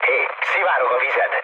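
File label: Hungarian damaged water mood low, speech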